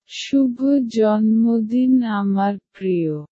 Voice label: happy birthday my dear bengali